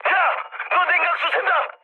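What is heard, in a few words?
Korean damaged water mood med, speech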